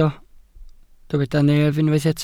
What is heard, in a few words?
"nef", Welsh